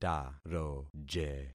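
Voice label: "taroje", concatenated syllables; Affixing experiment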